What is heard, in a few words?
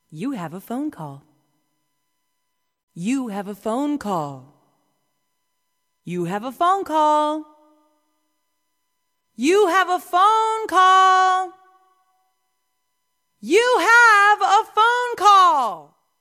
female talking ringtones